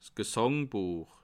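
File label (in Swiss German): "gsandbuech", Bas Rhin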